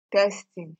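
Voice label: "testing", Nigerian Pidgin, female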